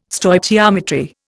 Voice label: "Stoichiometry", Indian